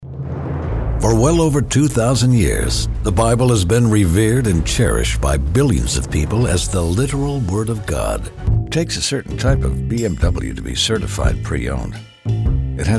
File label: english male voices american accent